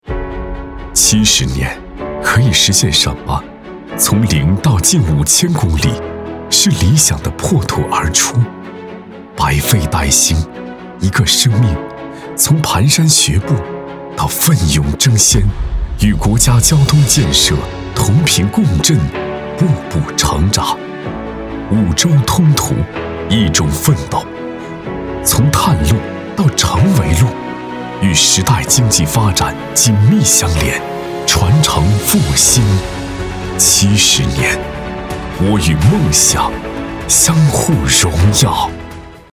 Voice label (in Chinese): 男 形象片; 走心企业形象片样音; 桥隧